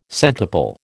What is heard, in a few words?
"centuple", American